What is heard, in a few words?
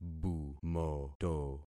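"bumoto", concatenated syllables; Affixing experiment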